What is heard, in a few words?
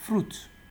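"fruit", Nigerian Pidgin, male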